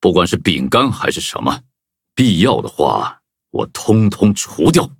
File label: touch, zh-hant; Cookie Run